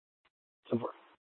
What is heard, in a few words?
Mesa PD Fiesta Dispatch; Mesa Simulcast